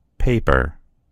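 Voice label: "paper", American English; gcompris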